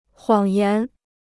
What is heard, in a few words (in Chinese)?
"谎言", Chinese